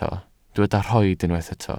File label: "rhoid", welsh